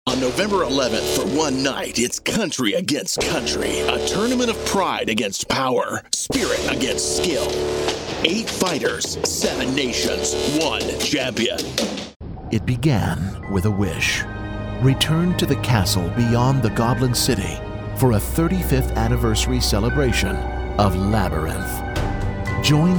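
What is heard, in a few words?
Promo Imaging, Male Voice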